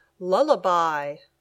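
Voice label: "lullaby", English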